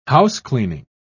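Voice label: "housecleaning", British English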